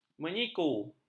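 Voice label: "menyiku", Malay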